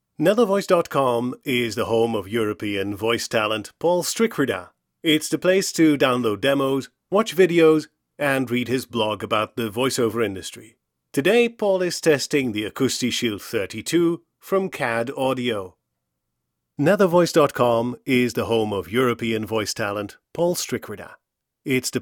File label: at 5 Inches; Nethervoice